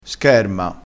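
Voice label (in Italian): "scherma", dialetto crespinese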